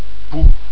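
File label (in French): "pou", French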